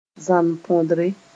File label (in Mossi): "zan-pondre", moore